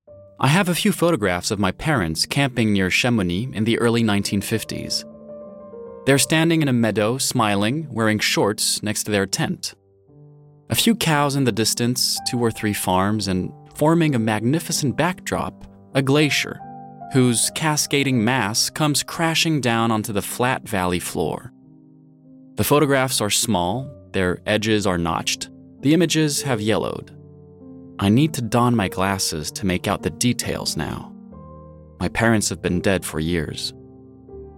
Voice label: Narration docu Mont Blanc US

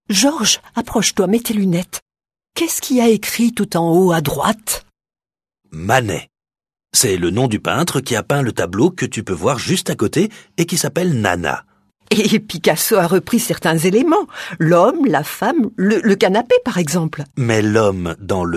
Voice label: comédie voix transformée; Picasso Expo

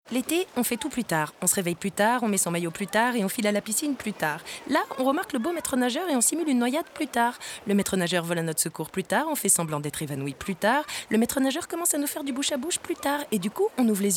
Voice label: comedie

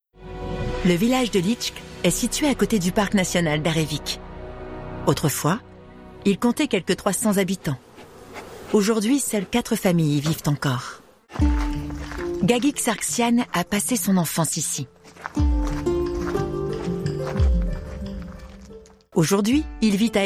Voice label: Doc Armenie Ecologie; Arte

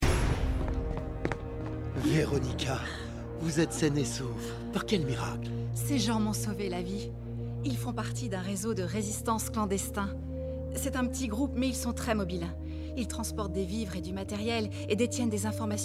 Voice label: reconnaissante douce inquiete

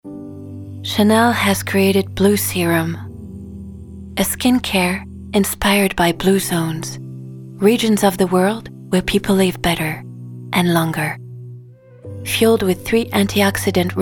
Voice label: Anglais

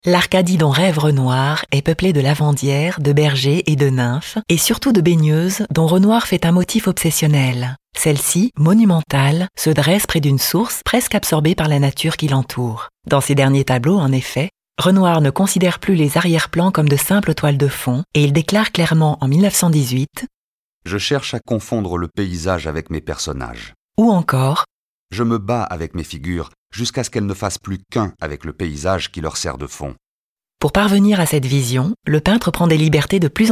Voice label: Audioguide F; Renoir; Grand Palais

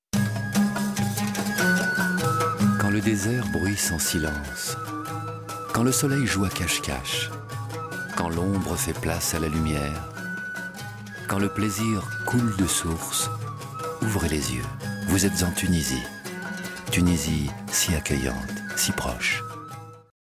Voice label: poème; Tunisie

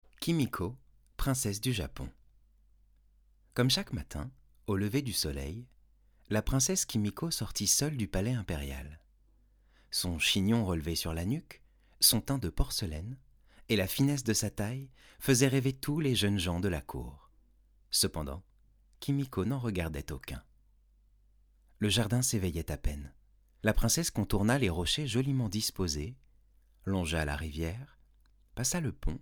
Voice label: CONTE JAPONAIS

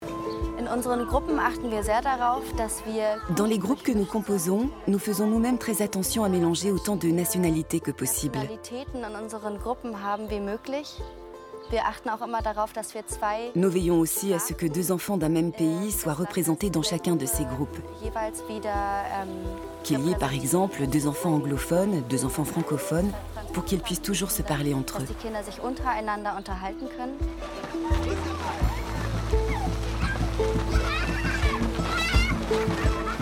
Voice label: comedienne voix off; sur le toit du monde; berlin

